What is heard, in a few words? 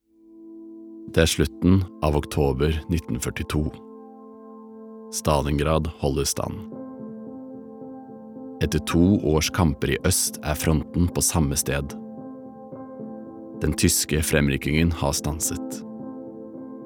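Norwegian documentary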